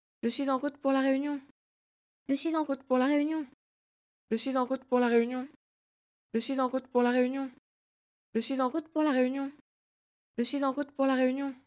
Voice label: variation pitch, 6 random variants of French phase "je suis en route pour la réunion" (I'm on my way to the meeting); CLEESE; variations around an original speech recording.